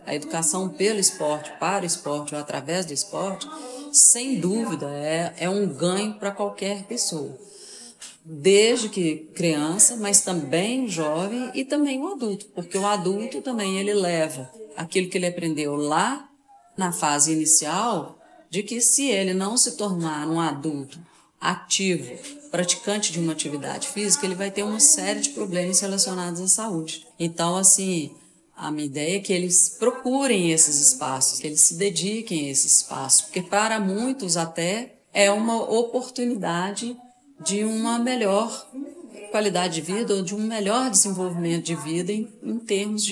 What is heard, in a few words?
Vocals; projetos sociais esportivos minas gerais